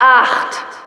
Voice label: Announcer ends 8sec, de

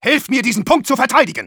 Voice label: help me defend, de; Engineer

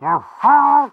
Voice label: jeers; Pyro

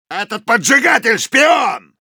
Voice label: Soldier, ru; «Этот поджигатель — шпион!»; голосовых команд солдата

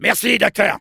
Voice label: thanks for the heal, fr; Soldier; "Merci, docteur."

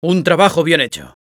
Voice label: cheers, es

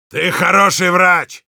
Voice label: thanks for the heal, ru; Heavy

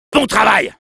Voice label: good job, fr; Soldier

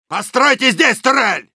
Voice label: needs entry, ru; «Постройте здесь турель.»; Soldier

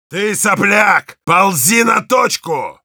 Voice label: standonthepoint, ru

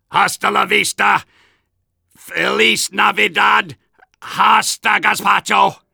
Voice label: robot; Soldier

